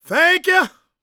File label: PREACHIN, Gospel, PREACHER